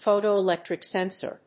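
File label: "Photoelectric sensor", English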